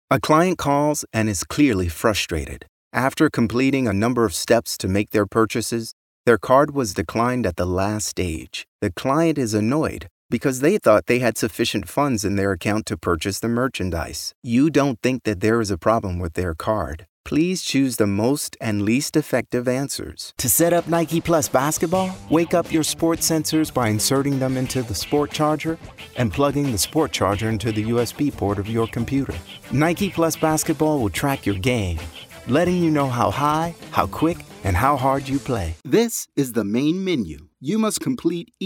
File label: voice over elearning